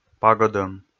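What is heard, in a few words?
"пагӧдом", Komi, male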